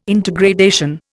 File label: "intergradation", Indian